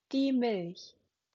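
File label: "Milch", German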